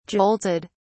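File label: "jolted", British English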